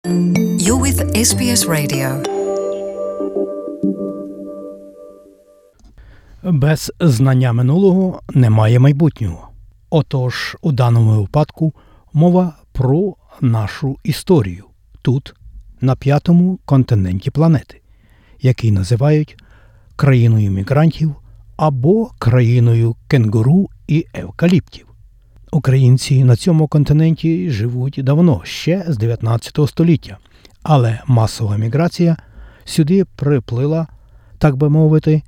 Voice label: ukrainian; SBS Ukrainian; УКРАЇНЦІ У СІДНЕЇ: ЧОТИРИ ЮВІЛЕЇ

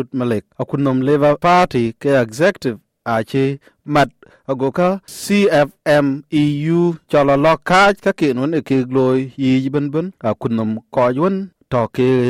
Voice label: dinka, podcast; Käk yam ciɛlië kek SBS Dinka akol niin 18 July 2024

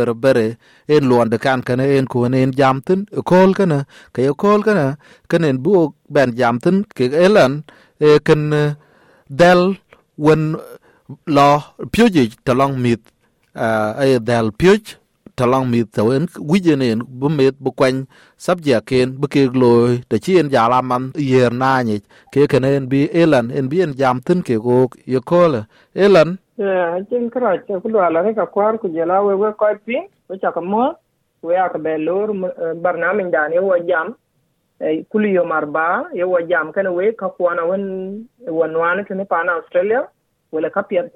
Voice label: dinka; Educational pathways for year 9 students; SBS Dinka

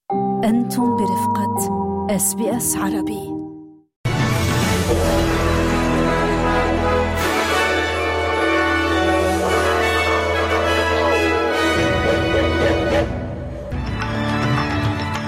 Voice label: arabic, newsflash; morning news

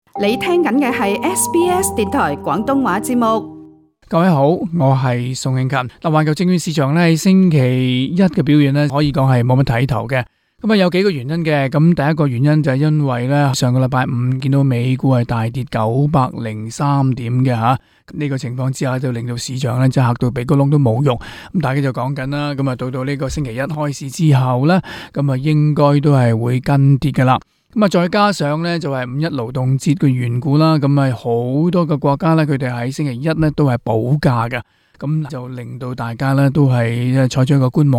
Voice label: cantonese; 市場走勢依然低迷 靜待美聯儲加息; SBS Chinese; global finance

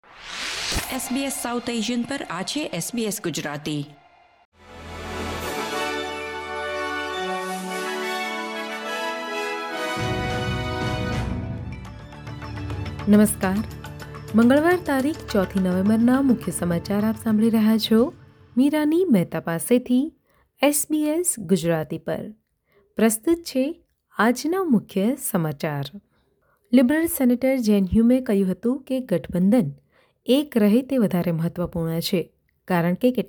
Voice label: gujarati news bulletin; SBS Gujarati Australian update: 4 November 2025

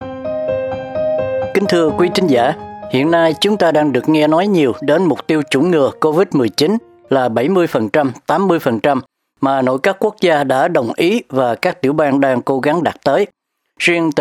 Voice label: with voice over, Vietnamese; SBS Vietnamese; Queensland vẫn còn chặng đường dài để đạt mục tiêu chích ngừa Covid

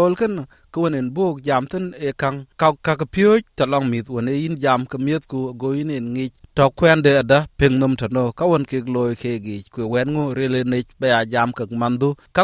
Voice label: dinka, podcast; Jaam keek miëthku wɛ̈t panpiööc; SBS Dinka

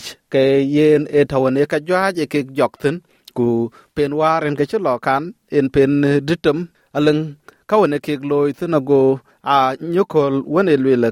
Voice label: dinka; SBS Dinka

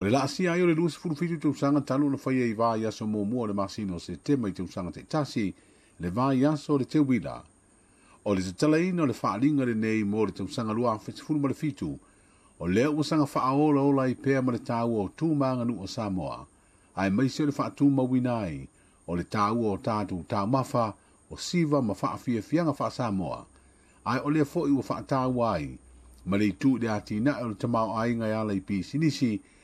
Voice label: samoan; SBS Samoan; Teuila Festival opens in Apia

